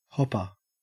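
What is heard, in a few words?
"hopper", Australian English; pronunciations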